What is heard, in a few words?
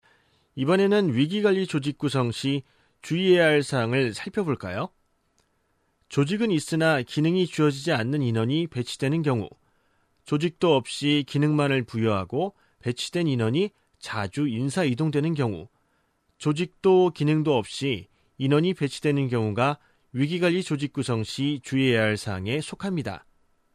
korean e-learning